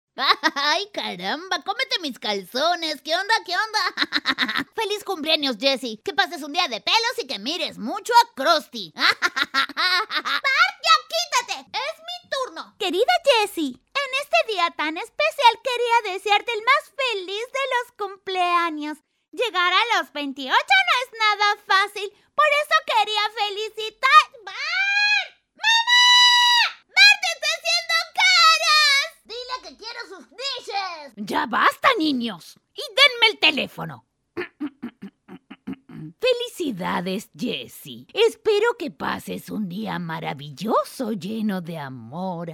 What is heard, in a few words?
Demo Cartoons